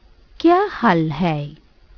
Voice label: HOW AR YOU, HINDI

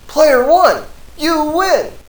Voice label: Announcer One win; BREAKIN BATTLE

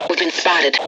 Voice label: detected, Voice English

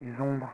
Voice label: Whisp; Revista CD Expert 50; PCGamer50

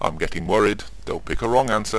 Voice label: chat

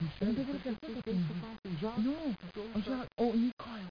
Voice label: MUMBLING; lands

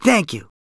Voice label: Thank You, Male; gangsters

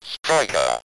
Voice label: striker, Speak & Spell UK Speech

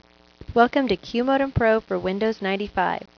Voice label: Welcome to QM95 Female

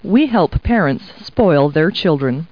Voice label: people, kid

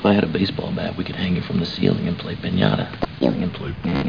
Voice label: STATIC; Babylon 5; Garibaldi

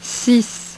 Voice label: "six", French; HyperSound™2

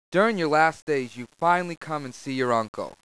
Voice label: UNCLE

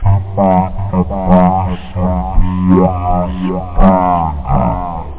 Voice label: ragga, speech; da capo vol1

